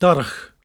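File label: "darrach", Cromarty Fishertoun dialect; [dAR-rack]; Word; Threat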